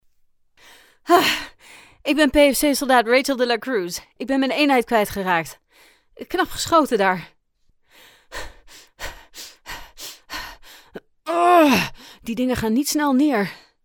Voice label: Dutch video games

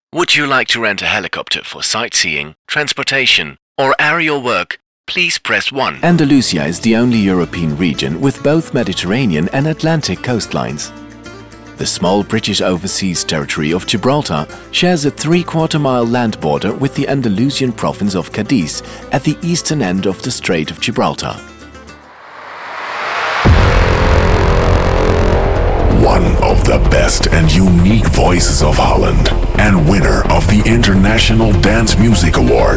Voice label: english commercial

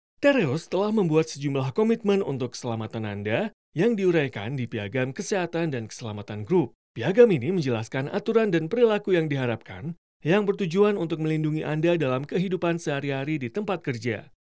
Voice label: indonesian narration

